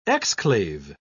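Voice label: "exclave", British English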